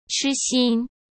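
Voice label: "痴心", Mandarin Chinese; hsk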